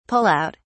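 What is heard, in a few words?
"pull out", British English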